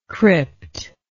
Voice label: "cryptal", english